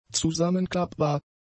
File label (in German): "zusammenklappbar", German; adjectives